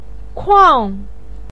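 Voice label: "kuang", Mandarin pinyin, tone 4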